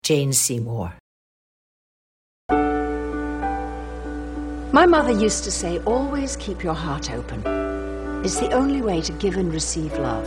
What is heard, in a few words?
commercial; Innovative LA